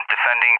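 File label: "defending" English, speech; DCS; Allied Flight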